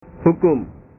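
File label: "hukum", Indonesian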